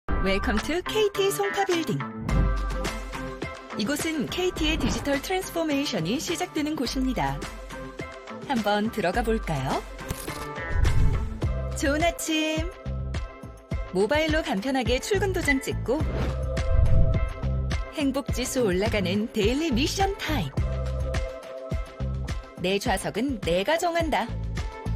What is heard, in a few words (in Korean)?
친절한 부드러운